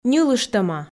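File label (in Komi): "ньылыштӧма", female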